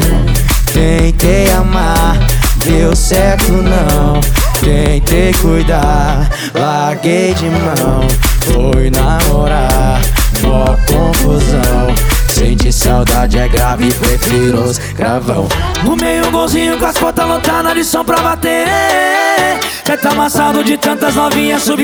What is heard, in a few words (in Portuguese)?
locutor a voz magnifica